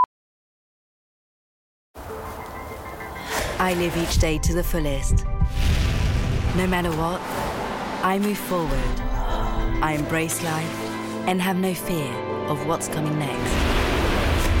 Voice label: UK; BIOTHERM EverPlump